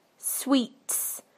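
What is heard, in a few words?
"sweets", British English; PictureDictionary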